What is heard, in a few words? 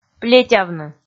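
"плетявны", Komi, female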